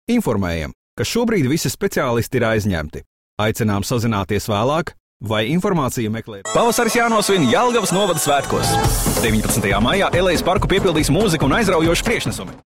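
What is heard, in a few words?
Latvian male commercial; voice demos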